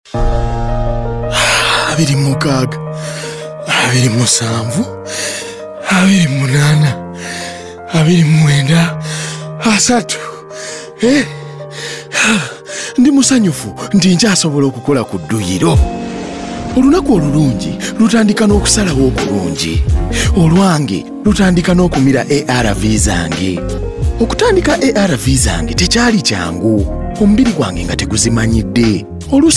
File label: Time Up HIV Spot New on Treatment Luganda